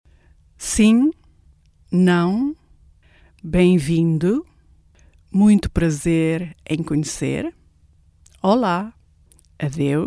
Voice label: Portugese